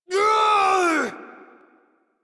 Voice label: Adult, en, Dialog; Warframe; Duviri Paradox Quest